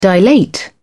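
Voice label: "dilate", British English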